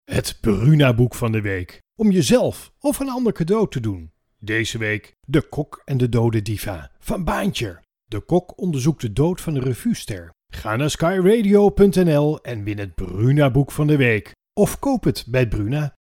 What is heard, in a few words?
Radio Commercial; Vorm3